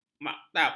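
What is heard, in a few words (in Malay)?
"maktab", Malay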